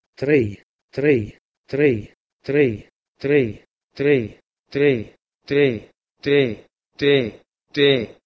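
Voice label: Proto-Indo-European trei to Sindhi te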